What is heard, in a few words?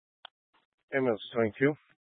Fire Dispatch